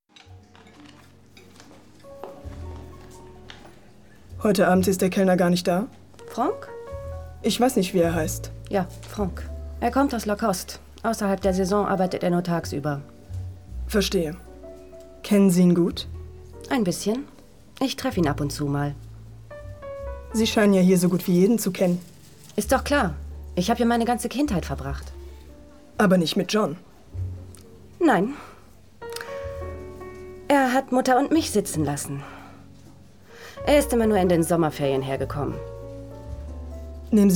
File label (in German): synchron realfilm; ludivine sagnier